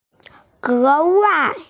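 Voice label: "gewai", Mandarin Chinese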